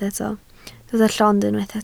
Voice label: "llond", Welsh

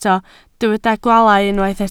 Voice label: "gwaalau", Welsh

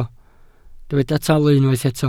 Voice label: "talu", Welsh

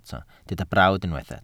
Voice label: "brawd", Welsh

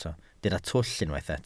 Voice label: "twll", Welsh

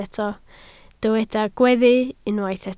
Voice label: "gweddu", Welsh

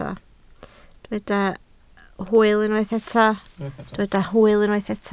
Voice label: "hwyl", Welsh

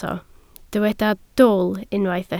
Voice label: "dwl", Welsh

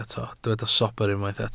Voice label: "sobr", Welsh

